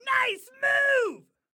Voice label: Compliment Nice Move, Excited Guy

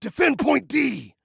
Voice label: DEFEND, Male

